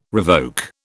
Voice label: "revoke", British